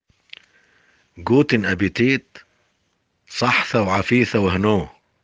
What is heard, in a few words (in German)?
appetit aramaeisch